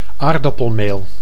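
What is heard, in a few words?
"aardappelmeel", Dutch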